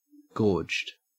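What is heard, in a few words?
"gorged", Australian English; pronunciations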